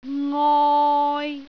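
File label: "ngoi3", Cantonese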